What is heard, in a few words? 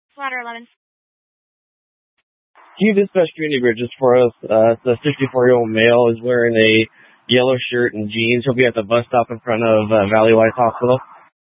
Fire Dispatch; K8 - FDCOM 8 - South; C- Chandler